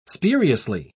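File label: "spuriously", English, British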